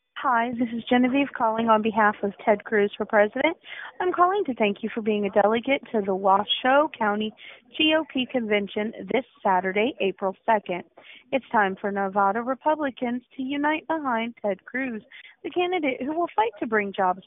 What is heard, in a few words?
voicemail